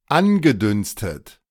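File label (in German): "angedünstet", German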